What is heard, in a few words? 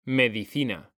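"medicina", Spanish, male; mekanta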